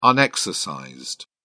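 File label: "unexercised", British English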